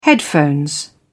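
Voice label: "headphones", British English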